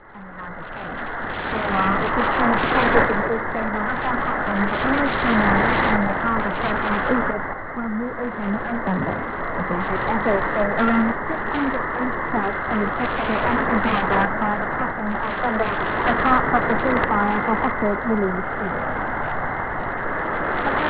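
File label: German AM; 6150,0; Radio Europe 24; Datteln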